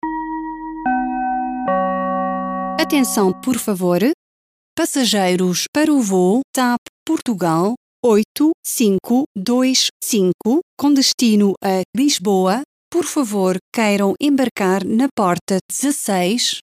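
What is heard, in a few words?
boarding, announcement; TAP; LIS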